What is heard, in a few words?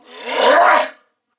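Throw Grenade Male, en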